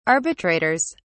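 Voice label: "arbitrators", British English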